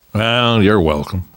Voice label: you are welcome, namvet, survivor voice; Left 4 Dead 2; left4dead2 dlc2